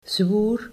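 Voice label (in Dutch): "zwoer", Dutch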